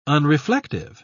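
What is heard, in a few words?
"unreflective", British English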